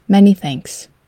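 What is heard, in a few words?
"many thanks", English pronunciation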